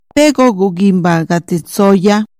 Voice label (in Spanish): Dónde venden comida, Chontal Oaxaca